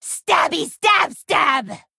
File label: Female Kobold Attack